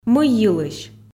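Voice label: "мый йылысь", Komi, female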